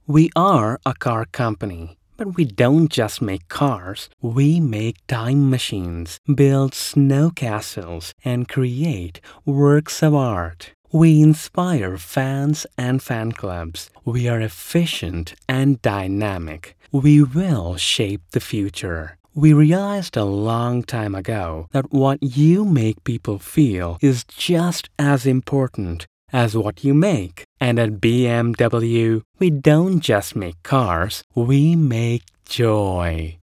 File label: Commercial; BMW